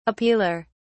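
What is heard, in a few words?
"appealer", British English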